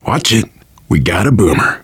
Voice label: heard boomer, survivor voice, biker